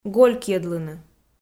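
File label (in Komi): "голькйӧдлыны", Komi, female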